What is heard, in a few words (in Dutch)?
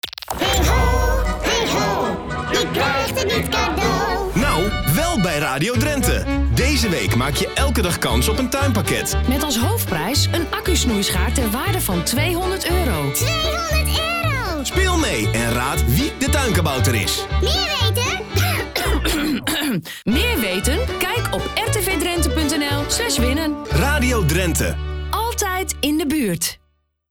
PROMO TUIN ACTIE; ZBA